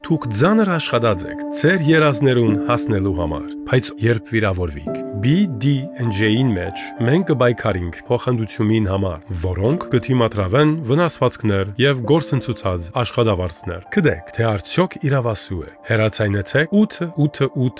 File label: western armenian radio ad; injury lawyers